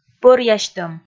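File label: "пӧръясьтӧм", Komi, female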